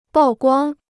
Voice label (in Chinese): "曝光", Chinese